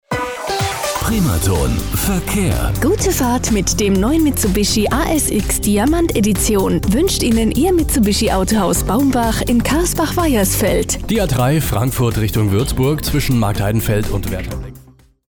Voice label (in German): Verkehrsponsoring; PRIMATON